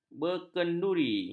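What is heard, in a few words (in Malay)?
"berkenduri", Malay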